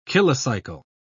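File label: "kilocycle", British English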